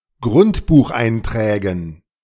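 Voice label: "Grundbucheinträgen" German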